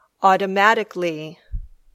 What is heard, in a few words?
"automatically", English